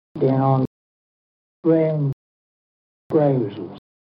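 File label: kent warren st male mouth, accents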